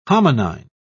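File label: "hominine", British English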